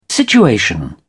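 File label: "situation", British English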